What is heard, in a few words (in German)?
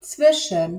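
"zwischen", German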